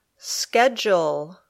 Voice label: "schedule", English